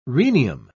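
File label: "rhenium", British English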